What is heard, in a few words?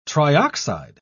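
"trioxide", British English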